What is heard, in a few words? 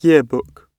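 "yearbook", British English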